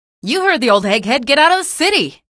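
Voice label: citizen squad flee, female; vo; npc; source engine; episodic; episode 1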